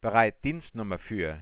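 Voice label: ready to dial work phone for, German, Voice Sounds; Samsung SGH-i310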